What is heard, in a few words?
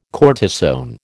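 "cortisone", American English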